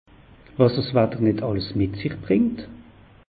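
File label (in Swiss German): "wasswatter", Alsatian; meteo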